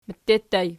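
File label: "mette" ergative plural, Archi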